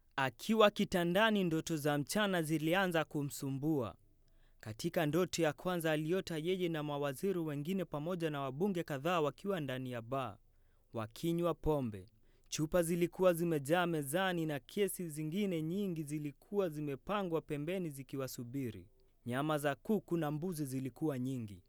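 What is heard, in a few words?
Swahili, male, corporate; voice-over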